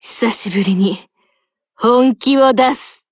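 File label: BLACK ACTION Japanese, Voice; GF Alarm; FG42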